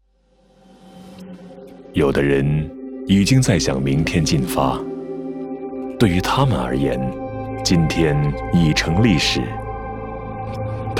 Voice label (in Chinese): 國語男聲; Volvo